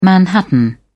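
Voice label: "manhattan", British English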